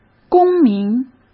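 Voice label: "公民", Mandarin Chinese; chinese flashcards; hsk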